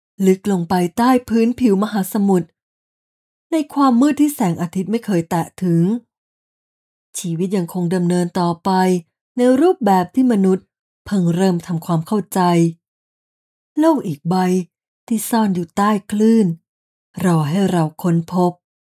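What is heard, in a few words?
Narration Nature, Female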